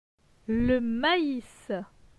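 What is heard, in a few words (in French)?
"Le mais", French; phonetique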